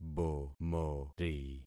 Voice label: "bomori", concat syllables; Affixing experiment